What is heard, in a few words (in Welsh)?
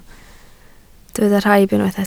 "rhaib", Welsh